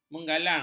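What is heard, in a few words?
"menggalang", Malay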